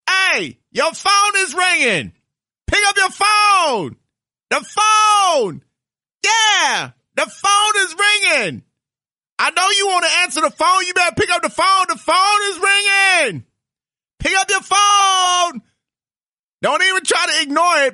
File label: funny call